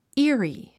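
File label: "eerie", English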